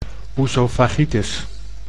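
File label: "oesophagitis", Dutch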